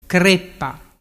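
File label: "creppa", Vernazzese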